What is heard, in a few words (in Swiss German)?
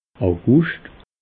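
"augscht", Alsatian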